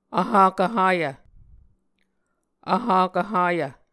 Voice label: "vhakv hayv", Muscogee